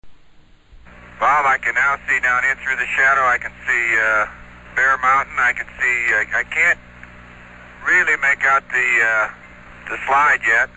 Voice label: apollo17 descent from orbit, NASA space shuttle communications; VOCALS and SPOKEN WORD